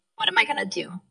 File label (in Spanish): Voice Acting es